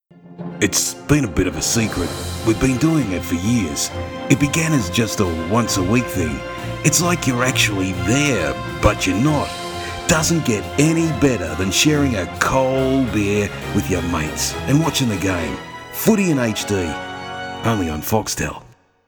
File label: Radio TV tags English Australian Male Young